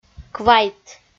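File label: "квайт", Komi, female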